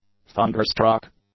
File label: "thunderstruck", English; adjectives